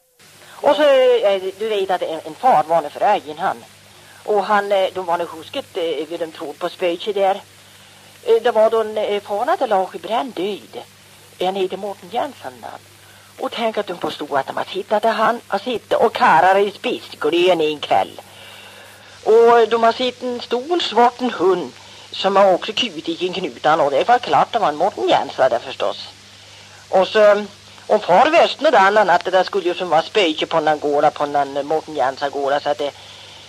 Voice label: Strom, dialekter

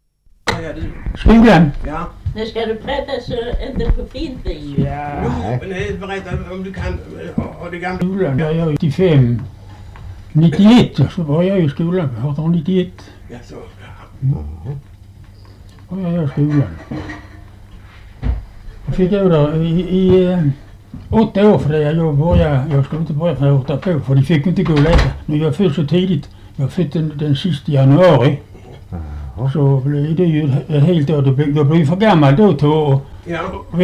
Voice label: dialekter, svenska sagor; Folkminnen

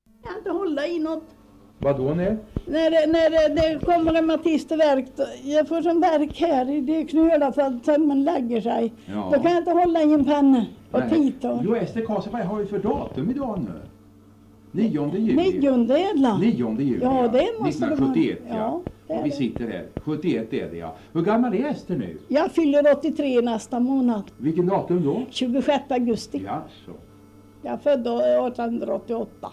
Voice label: dialekter; Folkminnen

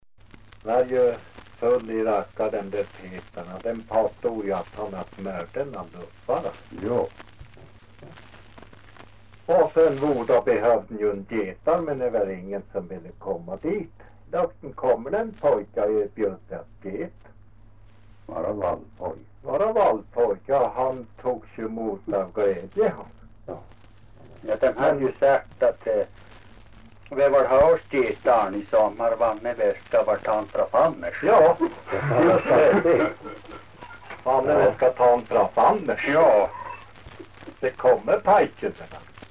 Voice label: dialekter, svenska sagor; Folkminnen

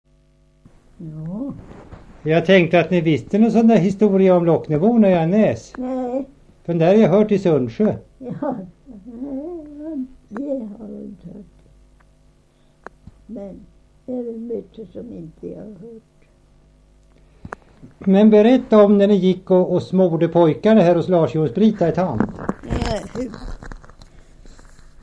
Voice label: Näs, dialekter; Svenska sagor; Folkminnen

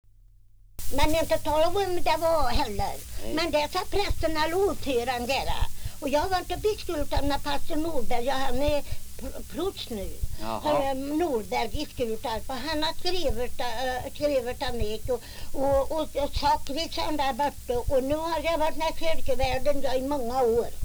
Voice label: dialekter; Folkminnen

